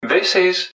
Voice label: this, english man, subway announcers; MetrostroiAddon